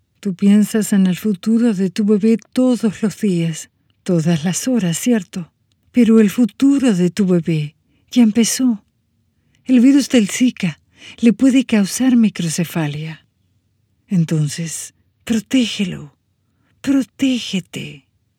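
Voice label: spanish narration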